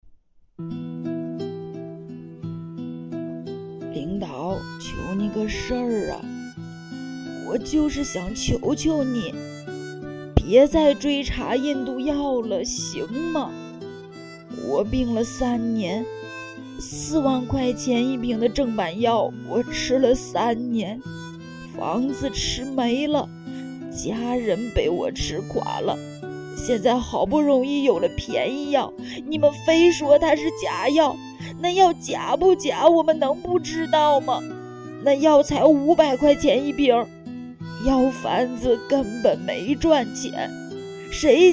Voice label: 老年音; 我不是药神

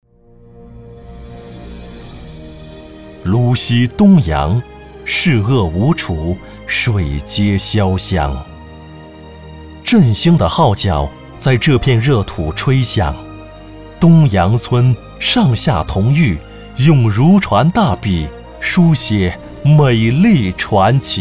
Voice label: 专题片; 东阳美丽乡村

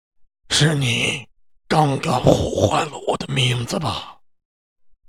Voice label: 怪

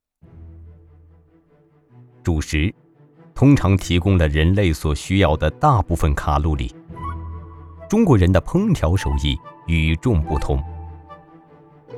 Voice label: 纪录片; 舌尖风格

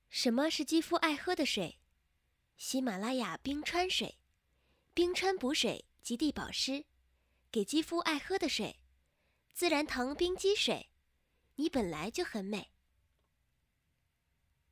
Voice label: 广告 配音

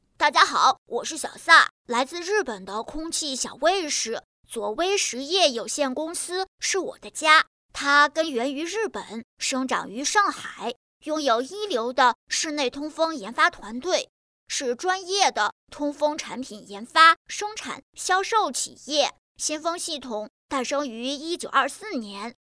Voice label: 男童

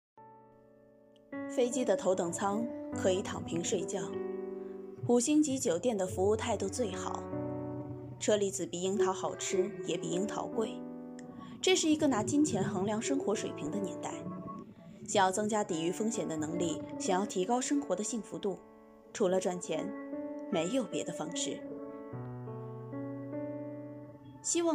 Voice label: 宣传片片段, 女声纪录片旁白配音, 青年（18-35）, 普通话

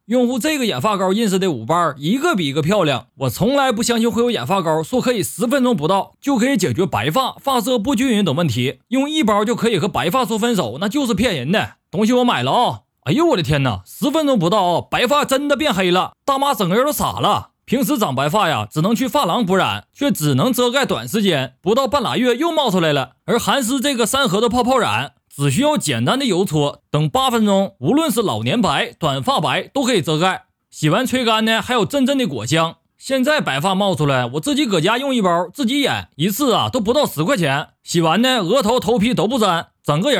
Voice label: 东北话 染发膏

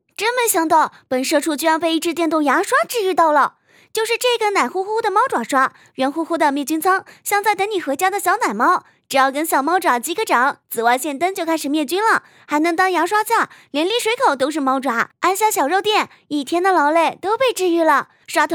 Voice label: 卖货

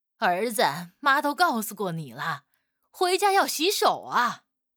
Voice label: 妈妈音

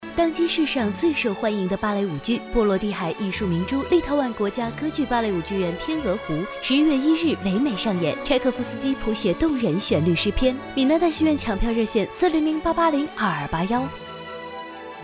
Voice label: 天鹅湖宣传广告; 闽南大戏院

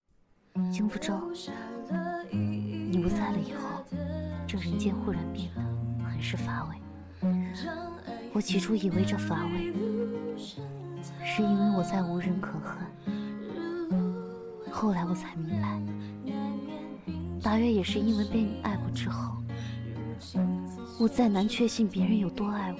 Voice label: 小说 片段配音; 风情不摇晃

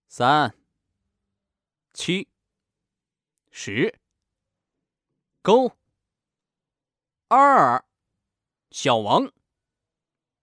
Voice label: 棋牌游戏男

